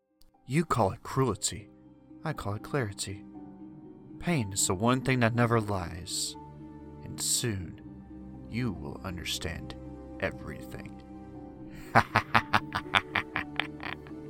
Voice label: Character VO; Reel